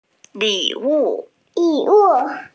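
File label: "li3 wu4", Mandarin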